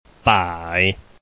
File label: "bai3", Chinese